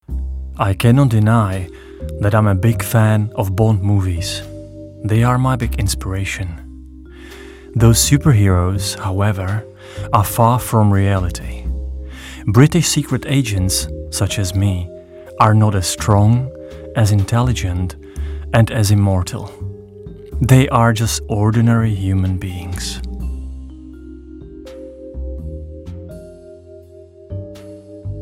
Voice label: Dabing English